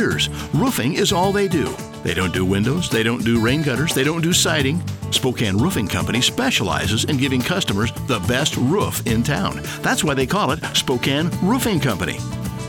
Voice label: radio commercial; spokaneroofingcompany